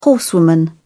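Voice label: "horsewoman", British English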